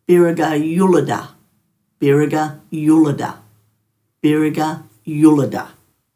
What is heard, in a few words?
"biraga-yuludha", Dhurga